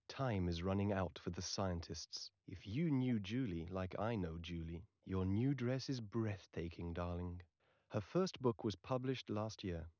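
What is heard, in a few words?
male, source; SiSEC11; underdetermined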